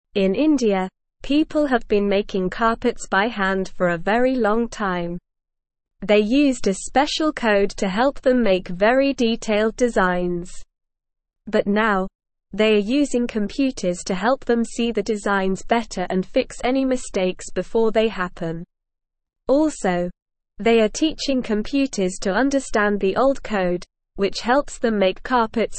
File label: English Lower Intermediate SLOW Reading; Making Carpets in India Computers and Handwork